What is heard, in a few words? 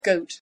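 "goat" pronunciation English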